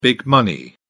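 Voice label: "big money", English, British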